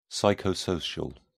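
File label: "psychosocial", British English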